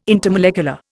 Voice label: "intermolecular", Indian